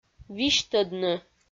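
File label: "висьтӧдны", Komi, female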